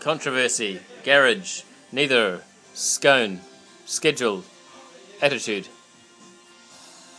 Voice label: Putaruru NZ 2 yrs male